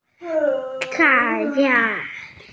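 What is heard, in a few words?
"tai4yang2", Mandarin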